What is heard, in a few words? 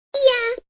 Cartoon voice says See Ya; Toy Voice Sound Effects; Toys